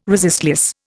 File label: "resistless", Indian